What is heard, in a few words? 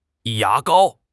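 "ya2 gao1", Chinese; HSK4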